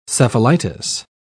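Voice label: "cephalitis", British English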